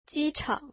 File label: airport, mandarin